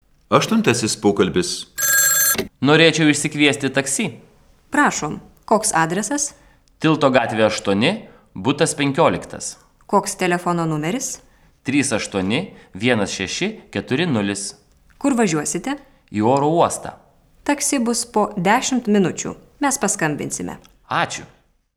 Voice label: Dialog